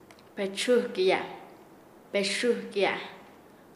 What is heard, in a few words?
Nasa Yuwe; dar latigo; paez